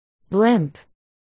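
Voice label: "blimp", spoken word, articulation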